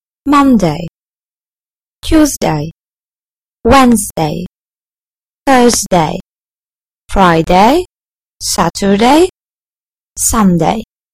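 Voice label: week, British English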